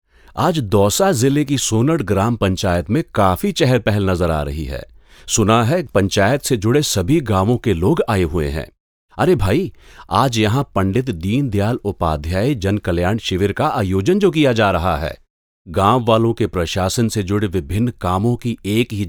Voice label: documentary hindi